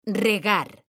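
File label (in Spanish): "REGAR", Spanish; mekanta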